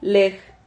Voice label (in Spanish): "lej", Silabas